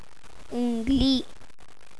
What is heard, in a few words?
finger, punjabi